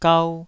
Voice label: "gau2", Taiwanese